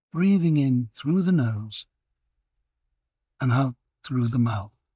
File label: ref without background rain